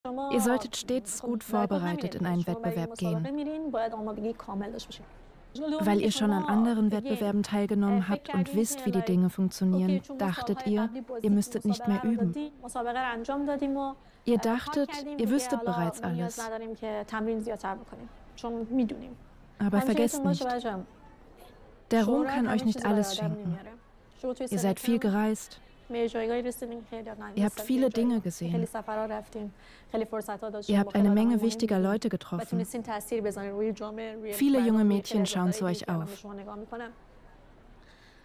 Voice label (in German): Doku